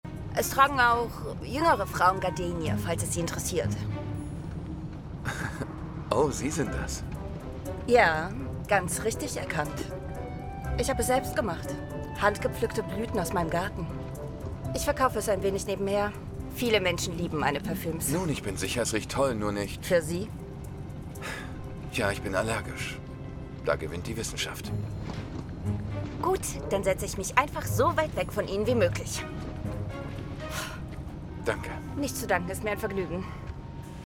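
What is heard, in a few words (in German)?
SYNCHRON KOMOEDIE; WEDDING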